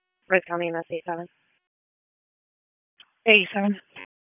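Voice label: EMS DISP, radio; p25; wake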